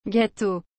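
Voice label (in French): "gâteaux", French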